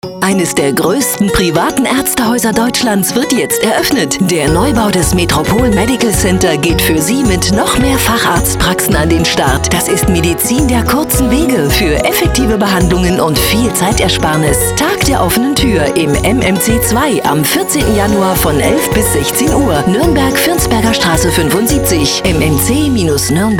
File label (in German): Funkspot Radio; MMC Tag der offenen Tuer